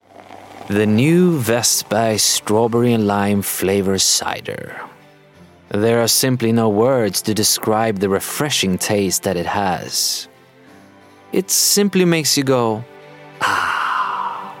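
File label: Swedish, English, Scandinavian, Male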